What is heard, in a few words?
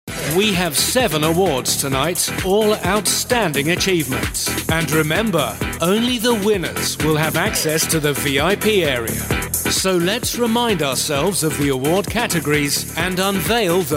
national commercial